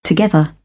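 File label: "together", British English; AmericanBritish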